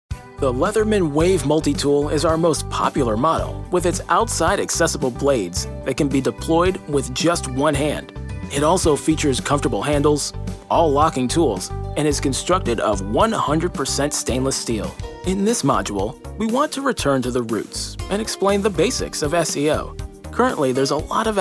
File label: Narration